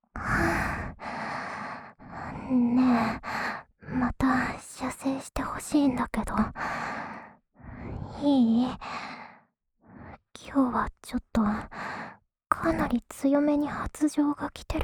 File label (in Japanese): シコシコボイスループ（左耳）